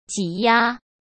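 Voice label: "挤压", Mandarin Chinese; hsk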